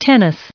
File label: "tennis" en-us recite